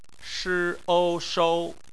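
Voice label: "shou" bopomofo, Chinese; phonetics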